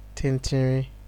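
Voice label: "tintirin", Nigerian Pidgin, male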